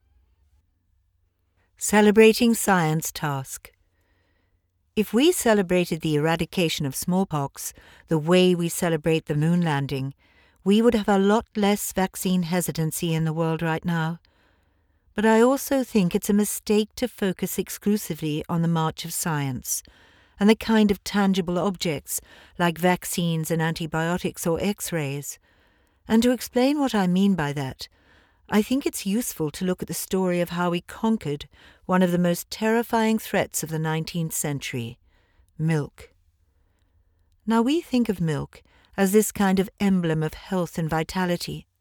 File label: Elearning